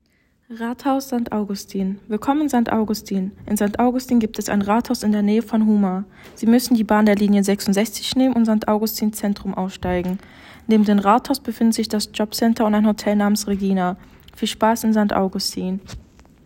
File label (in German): Deutsch; Rathaus